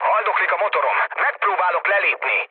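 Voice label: Hungarian damaged engine mood low, speech; War Thunder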